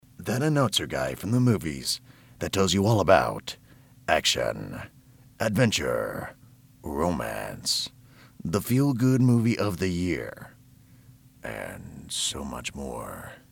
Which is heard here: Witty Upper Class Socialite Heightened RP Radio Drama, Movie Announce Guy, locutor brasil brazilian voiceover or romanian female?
Movie Announce Guy